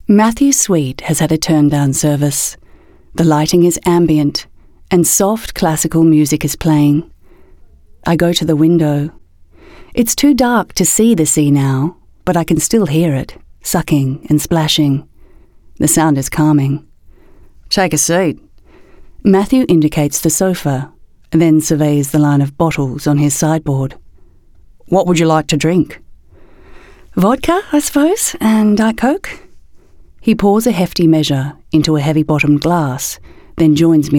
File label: Audio Book